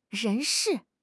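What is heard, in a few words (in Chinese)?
"人士", Chinese; HSK; HSK6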